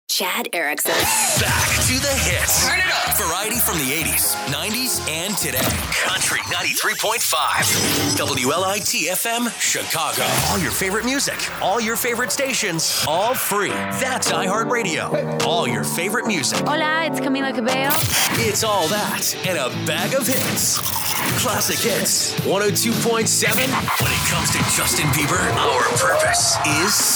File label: radio all formats; radio imaging